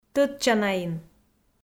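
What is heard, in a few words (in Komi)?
"тӧдчанаин", female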